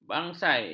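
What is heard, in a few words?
"bangsal", Malay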